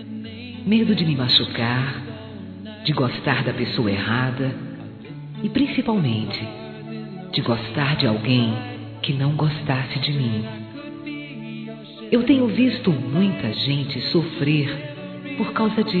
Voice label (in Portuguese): Gosto de Você, fem; Telemensagens; Sensuais